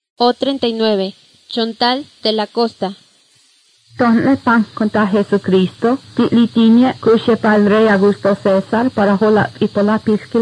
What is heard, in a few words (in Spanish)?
spanish mexico